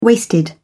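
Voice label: "waisted", British English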